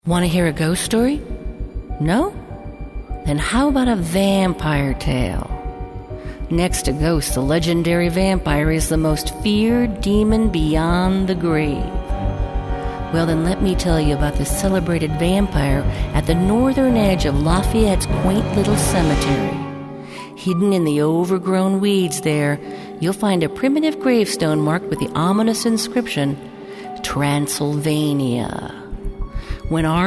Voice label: Voiceover; Haunted Boulder; Lafayette Vampire